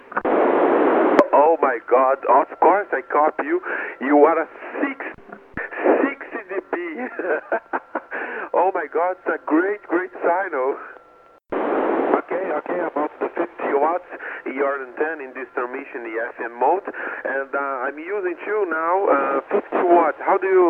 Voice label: fm, QSO; 29Mhz FM/AM - 59+40dB